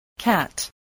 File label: "cat", spelling